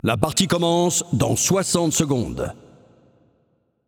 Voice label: Announcer French Match Begin